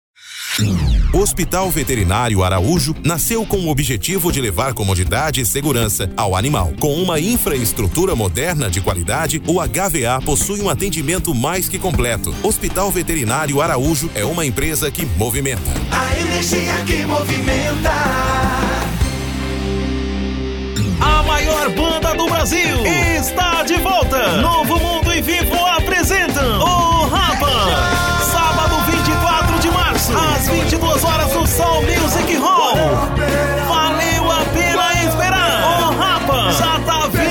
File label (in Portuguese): locutor